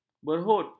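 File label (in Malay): "berhud", Malay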